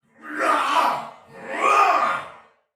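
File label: Attack Taunt Soldier Male, Battle; Dialog; Barks; Sinecure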